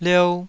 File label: "liou2", Taiwanese syllable